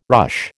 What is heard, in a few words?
"ruche", American